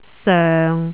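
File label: "soeng6", Cantonese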